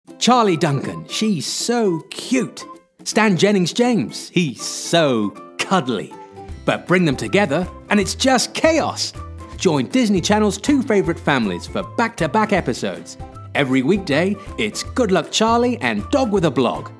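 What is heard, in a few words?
Commercial English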